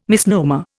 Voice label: "misnomer", Indian